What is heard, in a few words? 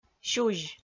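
"сюзь", Komi, female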